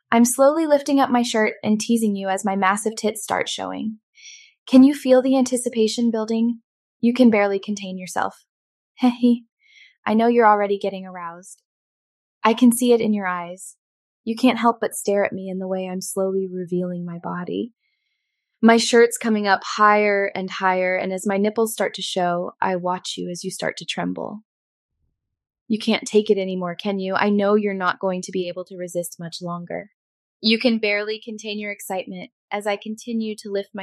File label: synthesized audio